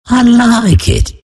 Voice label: happy; Slark